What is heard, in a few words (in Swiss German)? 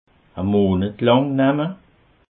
"monetnamme", alsace